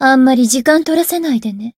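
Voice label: Voice encounter, Japanese; toova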